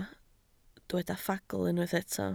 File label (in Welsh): "ffagl", Welsh